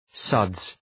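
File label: "suds", English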